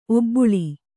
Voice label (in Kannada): "ಒಬ್ಬುಳಿ", Kannada; obbuḷi; noun; a place